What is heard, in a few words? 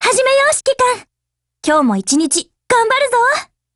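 HELLO Japanese, Voice; GF Alarm; SPAS12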